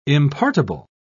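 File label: "impartible", British English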